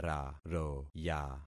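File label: "raroya", concatenated syllables; Affixing experiment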